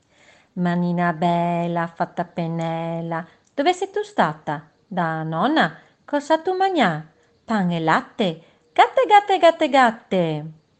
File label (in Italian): dialetto Treviso; Notte dei Racconti 2020